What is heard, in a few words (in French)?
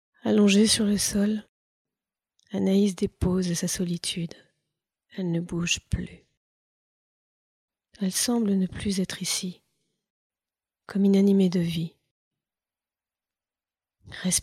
Narration Drame